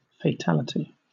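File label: "fatality", British English; pronunciations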